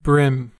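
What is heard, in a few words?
"bream", US English; pronunciations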